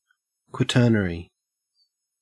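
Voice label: "quaternary", Australian English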